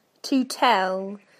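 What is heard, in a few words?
"to tell", British English; PictureDictionary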